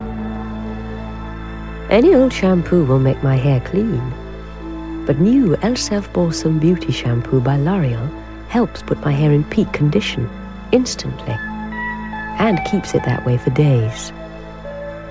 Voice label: Radio Advert; Radio Hallam; Elseve Balsam